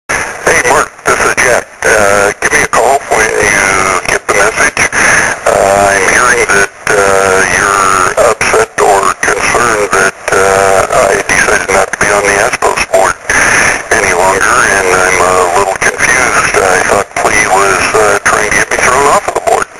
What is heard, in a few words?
phone message